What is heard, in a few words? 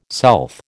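"south", American English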